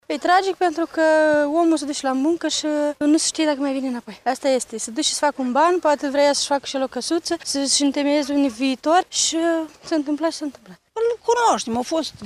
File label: vox pop; romani plecati la munca in italia